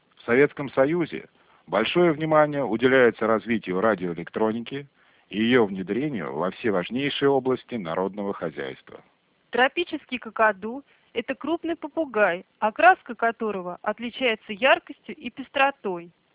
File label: speech g729